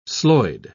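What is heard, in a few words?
"sloyd", British English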